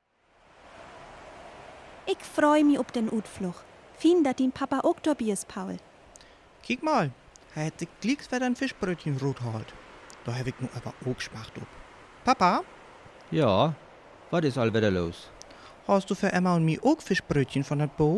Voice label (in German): Dialog, niederdeutsch